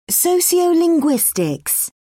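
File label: "sociolinguistics", British English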